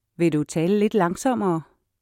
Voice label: slowly, danish

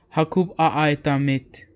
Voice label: good morning, serrano

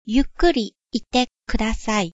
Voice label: slowly, japanese

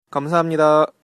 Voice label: thanks, Korean

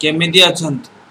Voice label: how are you odia

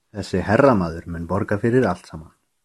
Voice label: pay icelandic

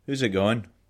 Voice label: how are you, scots

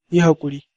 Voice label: sorry, hausa

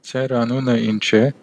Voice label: name, armenian western; Ձեր անունը ի՞նչ է

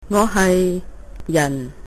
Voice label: i'm from, cantonese

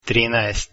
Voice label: 13 croatian

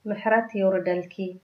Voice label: get well soon, tigrinya; ምሕረት የውርደልኪ።

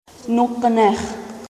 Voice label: hello klingon

